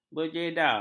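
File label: "berjeda", Malay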